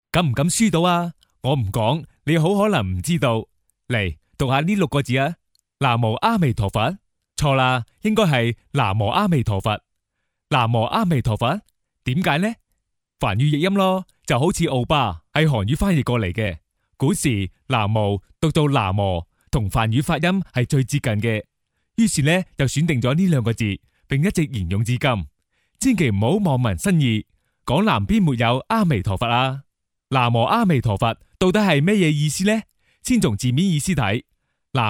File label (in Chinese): 男粤 宣传片; 病毒; 南无阿弥佗佛飞碟说; 粤语